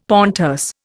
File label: "pontes", Indian; Dictionary